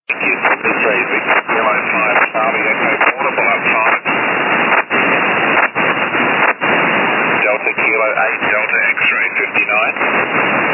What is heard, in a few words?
20m ssb